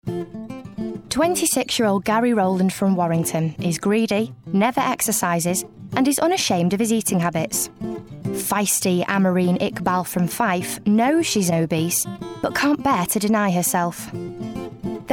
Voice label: nar doc